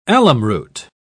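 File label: "alumroot", British English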